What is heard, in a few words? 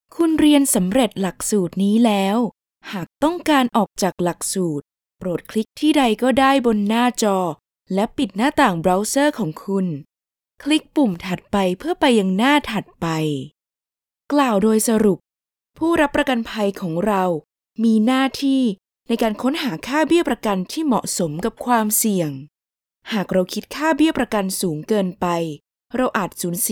thai e-learning